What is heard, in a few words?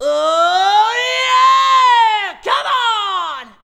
"oo yeah come", shouts; Best Service; Hyper Dance